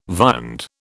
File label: "viand", British